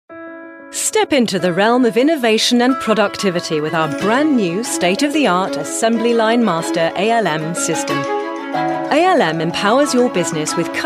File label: b2b corporate explainer, British RP Female Voiceover